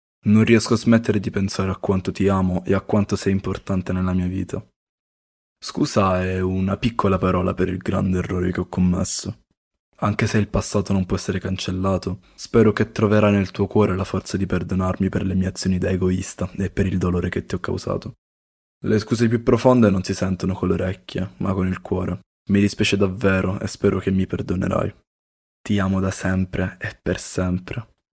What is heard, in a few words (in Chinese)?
意大利男声